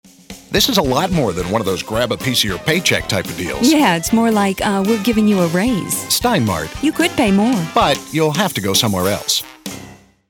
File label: RETAIL -- Two-person, Conversational, Dialogue; voiceover; steinmart